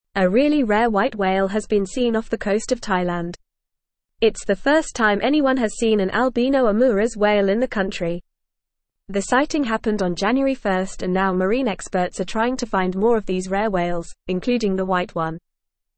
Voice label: English Upper Intermediate FAST Reading; English Newsroom; Rare Albino Omuras Whale Sighted off Thailand Coast

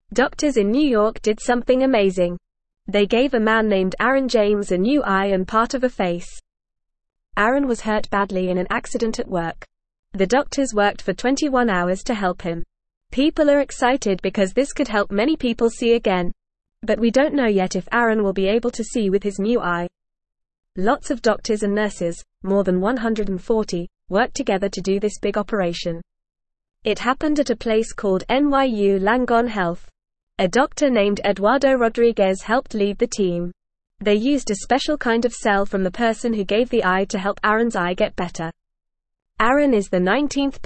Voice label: English Lower Intermediate FAST Reading; Amazing Man in New York gets new face

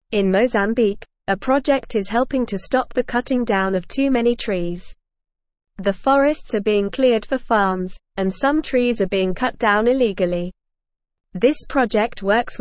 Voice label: English NORMAL Reading; Helping Mozambiques Forests Bringing Back the Tre

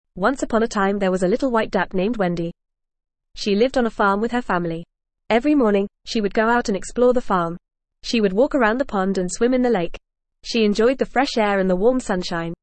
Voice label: ESL Short Stories for Kids FAST reading; Wendy The Little White Duck

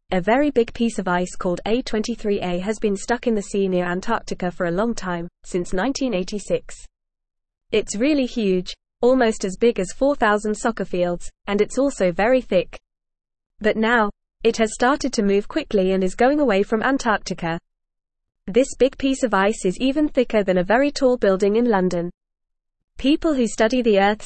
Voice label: English Lower Intermediate FAST Reading; Big Ice from Antarctica Starts Moving After Being S

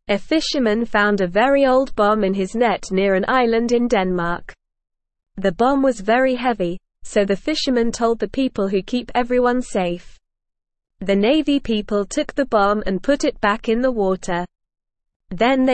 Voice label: English Lower Intermediate NORMAL Reading; Old Bomb Found in Net Near Langeland Island

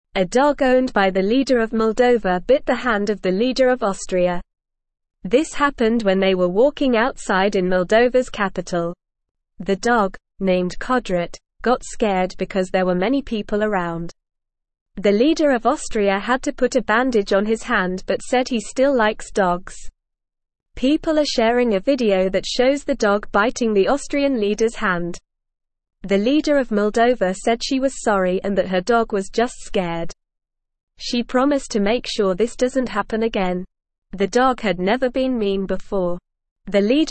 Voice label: English Lower Intermediate NORMAL Reading; Dog Bites Man Leaders Stay Friends; English Newsroom

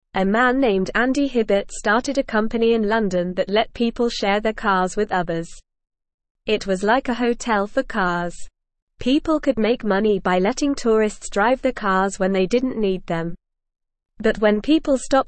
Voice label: English Lower Intermediate NORMAL Reading; Andys Car Sharing Company Faces Big Problems

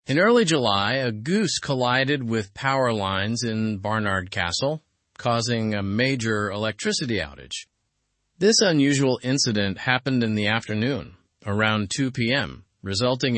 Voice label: learn english through news upper intermediate; goose triggers power outage in barnard castle

